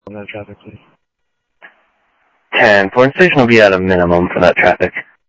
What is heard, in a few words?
scanner audio, Sheriff